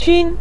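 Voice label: "qun", Mandarin Chinese syllable, neutral tone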